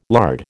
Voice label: "lard", American

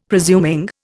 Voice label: "presuming", Indian; Dictionary

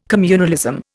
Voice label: "communalism", Indian

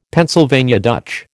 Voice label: "Pennsylvania Dutch", American

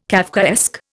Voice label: "Kafkaesque", Indian

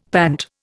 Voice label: "pant", Indian; Dictionary

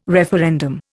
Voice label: "referendum", Indian

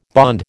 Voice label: "bond", American

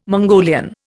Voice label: "Mongolian", Indian

